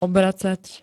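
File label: "obracať", Slovak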